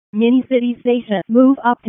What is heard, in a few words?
EMS ALERT, p25